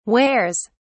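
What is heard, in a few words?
"wears", British English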